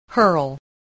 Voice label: "hurl", voice spelling